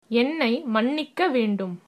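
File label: sorry, Tamil, female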